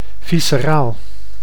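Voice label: "visceraal", Dutch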